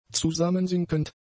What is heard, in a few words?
"zusammensinkend", German; adjectives